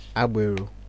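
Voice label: "agbero", Nigerian Pidgin, male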